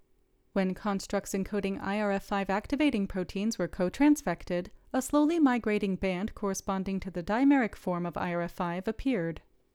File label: Voiceover